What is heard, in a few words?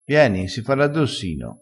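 "addossino", Italian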